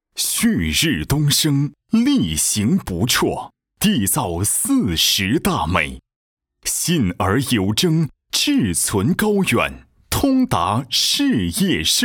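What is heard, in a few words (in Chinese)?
男 国 大音 专题（大气厚重）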